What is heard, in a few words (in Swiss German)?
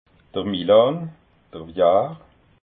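"milan", Alsatian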